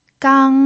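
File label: "gang", first tone, Mandarin Chinese pinyin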